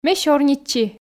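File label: Komi, female; ме сёрнитчи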